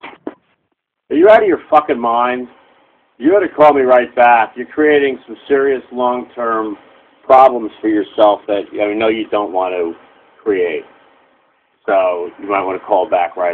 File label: voicemail